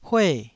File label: "hue4", taiwanese